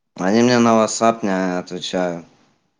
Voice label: voice message; ddnet